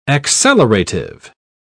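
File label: "accelerative", English, British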